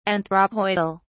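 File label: "anthropoidal", English, British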